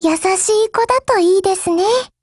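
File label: build over, Japanese; HK23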